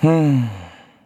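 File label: Vox Think, Korean; heroes; Kibera; kings raid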